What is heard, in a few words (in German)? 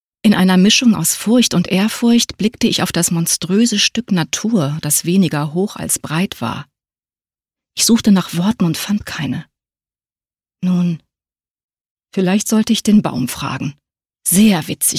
hoerbuch; labotte